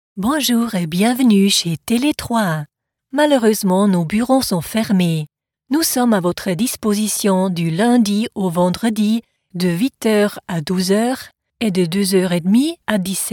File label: french commercial